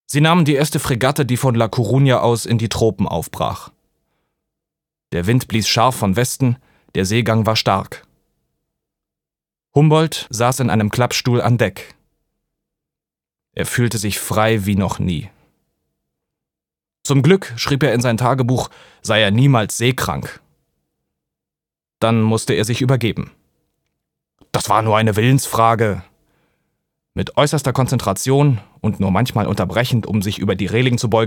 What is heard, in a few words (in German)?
Hoerbuch